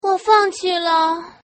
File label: Woman giveup